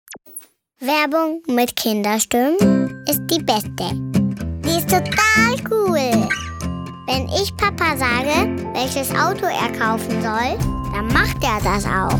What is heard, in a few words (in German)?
Werbespot